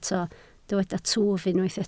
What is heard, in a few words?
"twf", Welsh